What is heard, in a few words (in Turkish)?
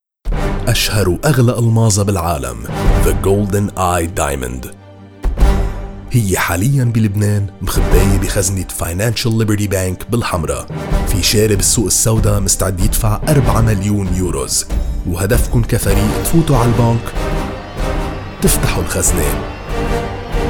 Arapca Erkek Lubnan Arapcasi; lubnan arapcasi seslendirme; erkek sesler